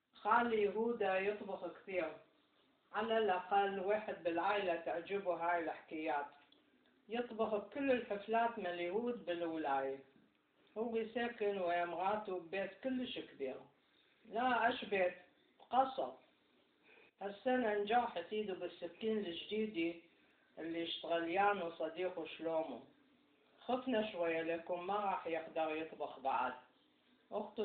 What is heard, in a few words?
Baghdadi Judeo-Arabic; Audio recording 10.4.12 to accompany section on Lesson 10: Seasons and Short Stories